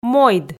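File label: "мойд", Komi, female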